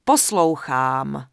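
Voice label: Human Female Standard NPC Greeting, Czech; wowpreklad; czwow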